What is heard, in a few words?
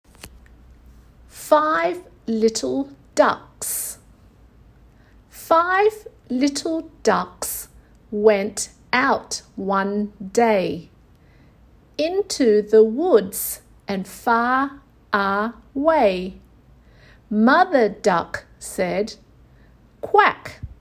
qu chant, english phonics; School-based Phonics Programme First Term